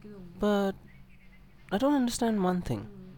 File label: boy second dialogue